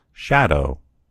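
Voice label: "shadow", American English; gcompris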